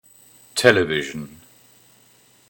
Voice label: television